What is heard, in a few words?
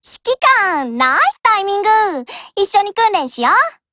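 HELLO, Japanese; GF Alarm; VZ61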